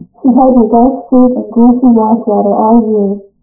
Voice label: laser vibrometer, Recorded Speech; VisualMic; TIMIT fadg0 sa1